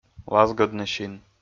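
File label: "лазгӧдны син", Komi, male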